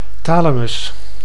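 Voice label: "thalamus", Dutch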